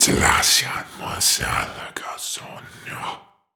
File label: Horror Scary Male Demon Talk